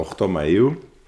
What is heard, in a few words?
Male conversational Athens